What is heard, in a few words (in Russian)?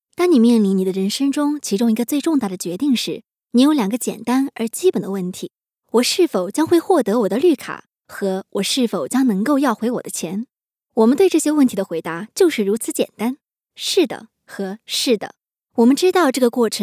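голоса, Chinese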